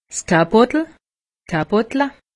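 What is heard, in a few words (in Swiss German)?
"kapotel", Alsatian; medecine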